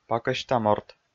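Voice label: "пакӧсьта морт", Komi, male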